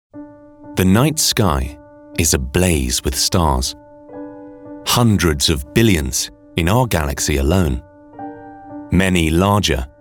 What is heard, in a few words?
Documentary